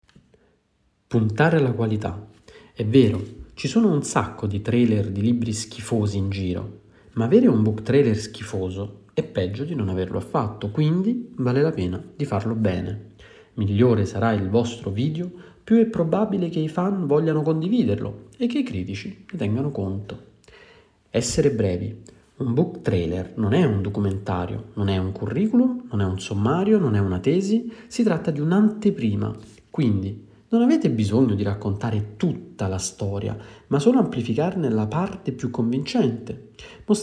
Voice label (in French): Texte italien Lecture Audio